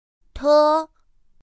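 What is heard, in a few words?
"t", Mandarin Chinese pinyin; pinyin2; pinyinji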